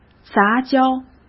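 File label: "杂交", Mandarin Chinese; hsk; chinese-flashcards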